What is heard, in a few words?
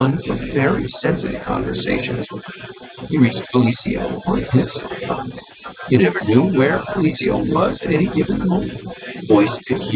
recon babble, speech noise